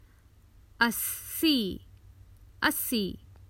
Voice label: Common Hindi Phrases for travel Eighty